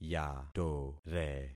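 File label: "yatore", concatenated syllables; Affixing experiment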